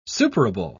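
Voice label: "superable", English, uk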